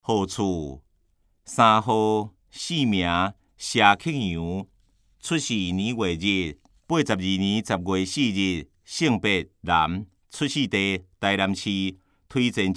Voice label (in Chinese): 台語; 有聲公報; 市議員